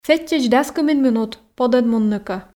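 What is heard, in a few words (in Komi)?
сэтчӧдз дас кымын минут подӧн мунны кӧ, Komi, female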